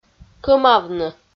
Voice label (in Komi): "кӧмавны" Komi